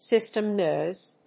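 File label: "system noise", English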